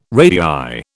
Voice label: "radii", British